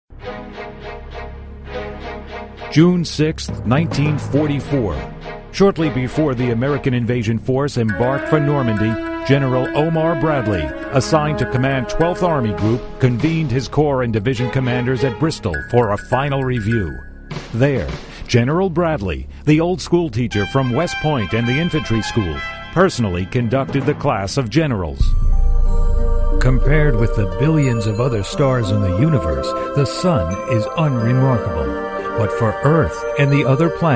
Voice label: Men Narration